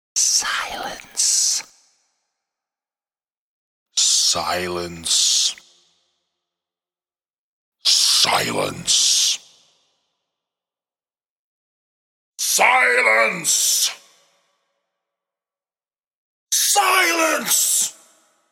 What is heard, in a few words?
Silence Spoken, 5 x versions of the word silence spoken from a whisper to a shout; Exclamations; Voice